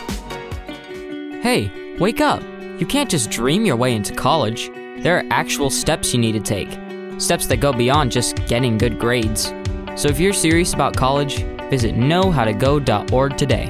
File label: kids commercial